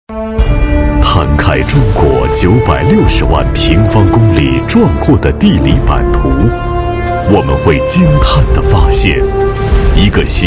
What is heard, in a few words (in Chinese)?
男 宣传片 大气浑厚稳重